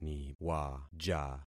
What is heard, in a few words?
"niwaja", concat syllables; Affixing experiment